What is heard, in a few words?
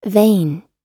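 "vain", American English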